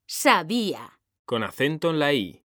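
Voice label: "sabía", Spanish, female; mekanta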